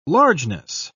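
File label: "largeness", British English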